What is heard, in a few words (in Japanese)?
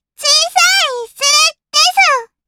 System Voice 少女 最小化